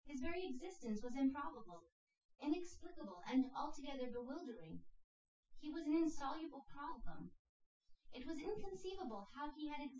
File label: female synthconv 250ms 1m; SiSEC08; SiSEC underdetermined